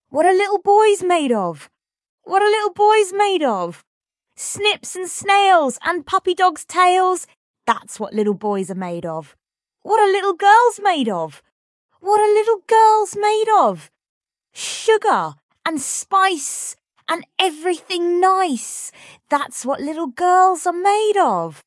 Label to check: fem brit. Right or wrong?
Right